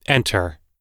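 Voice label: "enter", English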